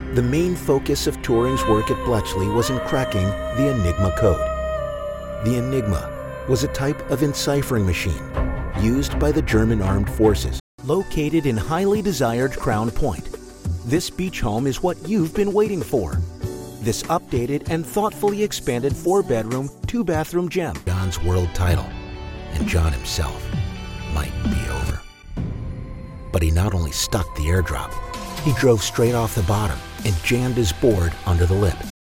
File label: Narration